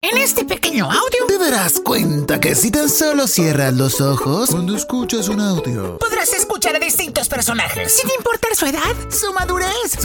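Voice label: Latin American Spanish Characters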